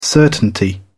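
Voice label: "certainty", British English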